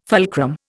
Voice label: "fulcrum", Indian